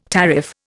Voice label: "tariff", Indian